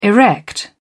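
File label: "erect", British English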